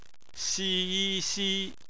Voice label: "xi", Chinese; phonetics

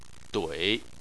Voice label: "dui3", Chinese

